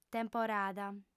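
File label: "temporada", female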